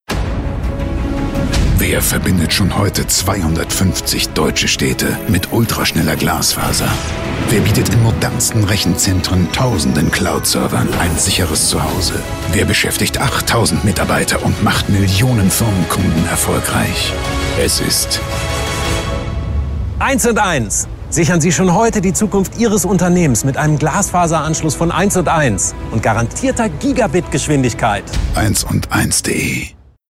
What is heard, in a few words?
male german promo; ideasonora